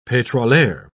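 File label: "petroleur", English, British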